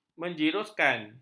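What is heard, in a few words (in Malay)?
"menjiruskan", Malay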